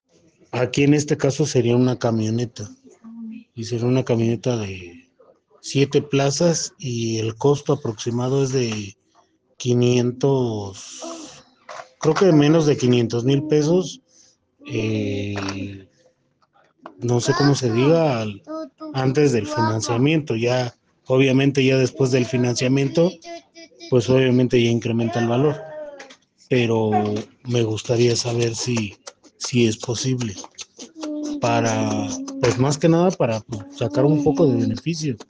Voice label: whatsapp ptt